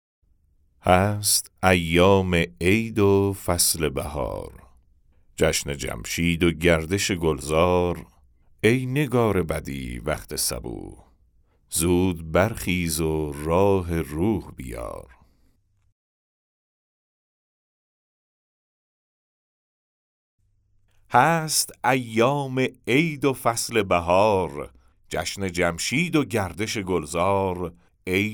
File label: Narration; nowruz